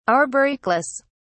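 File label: "arboricolous", British English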